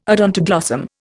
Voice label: "odontoglossum", Indian